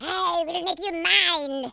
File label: i'll get you, speech, French